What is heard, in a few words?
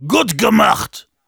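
Heavy good job, German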